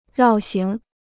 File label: "绕行", Chinese